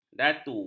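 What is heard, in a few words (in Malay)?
"datu", Malay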